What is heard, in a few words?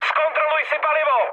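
tank damaged, Czech, mood med; speech; War Thunder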